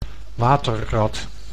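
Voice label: "waterrad", Dutch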